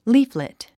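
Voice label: "leaflet", English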